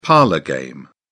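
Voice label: "parlour game", British English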